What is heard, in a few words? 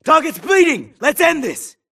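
grunt detect Player Low Health; imc; Titanfall 2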